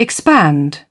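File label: "expand", British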